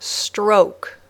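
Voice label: "stroke", English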